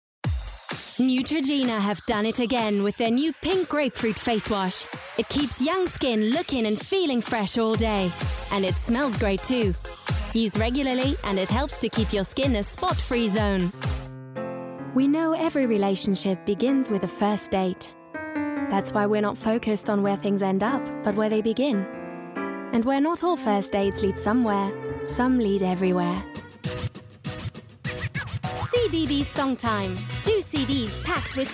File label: Commercials EN